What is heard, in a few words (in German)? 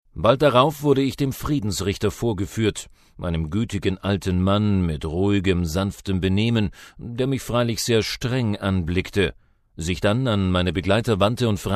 hoerbuch